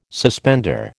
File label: "suspender", American